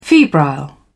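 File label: "febrile", British English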